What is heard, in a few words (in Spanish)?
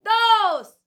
FEM DOS, MEXICAN; ILIO; Vocal Planet VOL-5